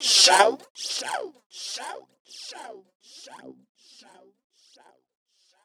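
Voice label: effects talk, Funky Vocals; USB Soundscan vol.18; AKAI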